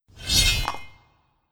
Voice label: Slave Taunt Moov; Legend of Keepers